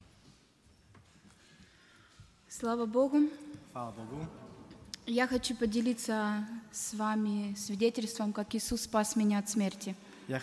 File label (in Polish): swiadectwo siostra z ukrainy